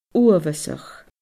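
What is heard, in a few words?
"uabhasach", Scottish Gaelic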